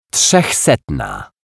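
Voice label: "trzechsetna", Polish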